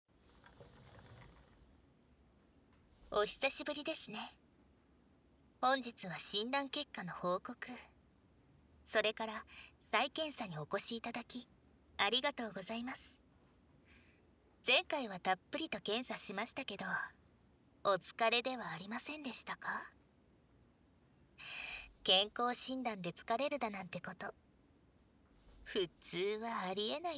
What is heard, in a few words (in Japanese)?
再会のささやき隠語耳舐め